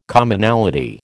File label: "Commonality", American